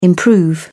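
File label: "improve", British English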